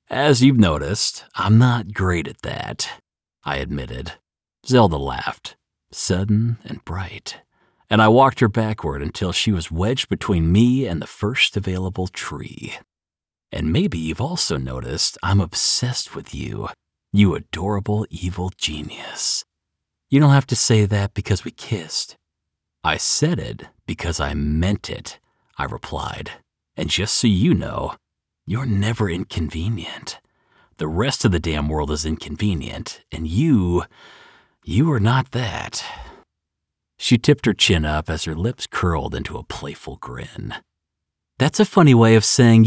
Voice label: Fiction Romance, Audiobook Narrator